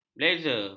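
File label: "blazer", Malay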